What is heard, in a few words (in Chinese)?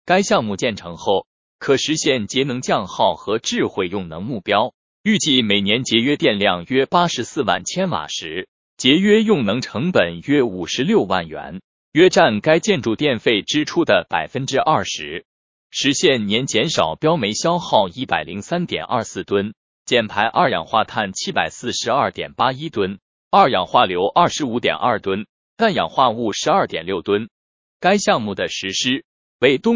配音